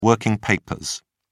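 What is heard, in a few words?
"working papers", British English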